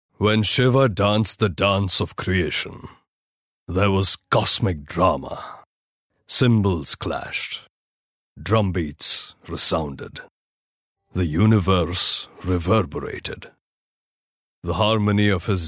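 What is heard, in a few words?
Marathi, male, English; indian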